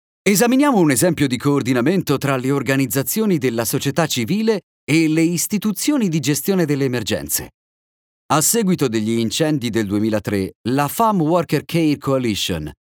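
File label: Italian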